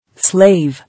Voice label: "Slave", English

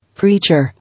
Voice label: "Preacher", English

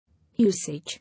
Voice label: "Usage", English

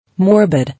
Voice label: "Morbid", English

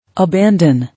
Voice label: "Abandon", English

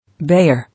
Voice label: "Bear", English

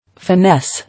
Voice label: "Finesse", English

